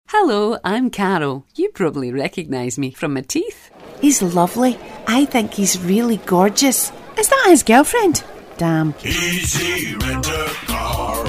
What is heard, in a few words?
scottish accent